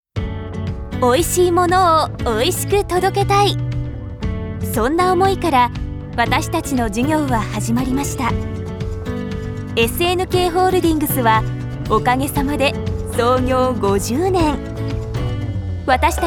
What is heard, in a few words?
corporate